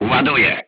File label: voice message reloading, polish